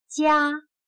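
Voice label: "夹" Chinese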